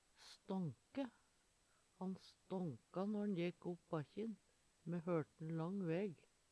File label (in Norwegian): stanke, Numedalsmål; Verb